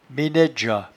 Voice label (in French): "menaidgeat", patois jura